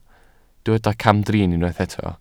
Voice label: "camdrin", Welsh

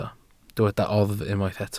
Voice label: "oddf" Welsh

